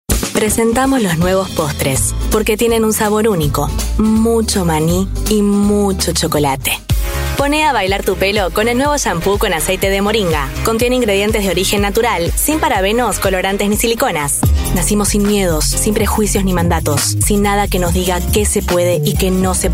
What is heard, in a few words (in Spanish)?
comercial